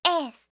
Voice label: "s", alphabet